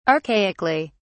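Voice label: "archaically", British English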